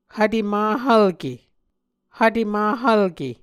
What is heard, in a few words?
"hvte mahvlke", Muscogee